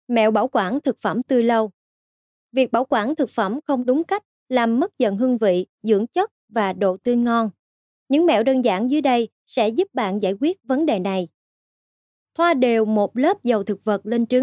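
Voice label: Vietnamese, female; vietnamese voice over talent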